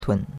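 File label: "tun3", Mandarin Chinese; Cours-de-Chinois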